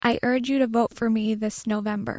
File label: voice pitch high